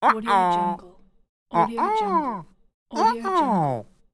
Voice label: uh oh